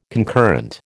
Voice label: "concurrent", American

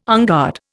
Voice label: "unguard", Indian

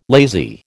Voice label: "lazy", American

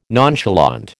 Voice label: "nonchalant", American

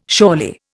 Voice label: "surely", Indian

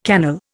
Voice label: "cannel", Indian

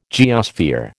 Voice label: "geosphere", American

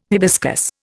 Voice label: "hibiscus", Indian

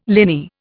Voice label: "liny", Indian

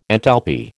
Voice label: "enthalpy", American

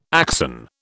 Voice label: "axon", British

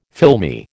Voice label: "filmy", American

